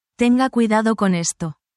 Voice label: spanish, spanish phrases